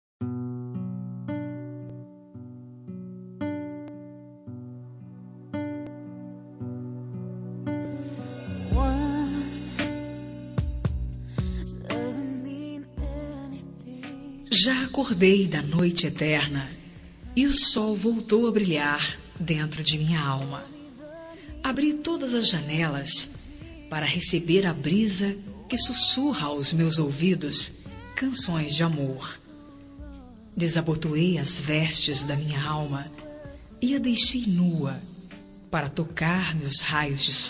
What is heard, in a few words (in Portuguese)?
Romântica Picante F